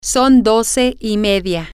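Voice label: its half past twelve, Spanish, female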